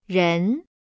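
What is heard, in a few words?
"ren", Chinese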